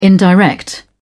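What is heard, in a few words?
"indirect", British English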